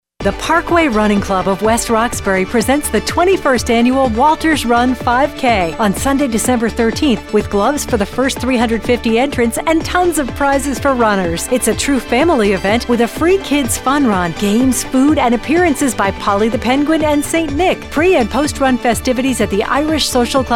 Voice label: Radiopromo